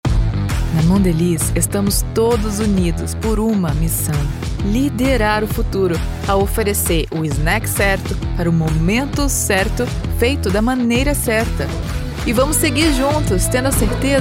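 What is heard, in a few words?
commercial corporate many styles female brazilian portuguese voiceover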